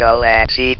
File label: "galaxy", votrax; VIDEO GAMES